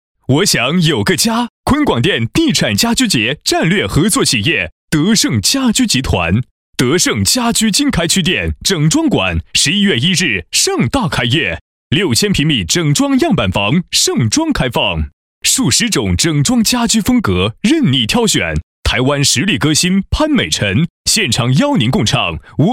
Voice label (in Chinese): 男, 促销, 开业促销; 我想有个家